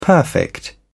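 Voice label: "perfect", British English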